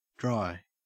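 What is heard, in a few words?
"dry", Australian English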